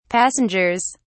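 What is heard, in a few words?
"passengers", British English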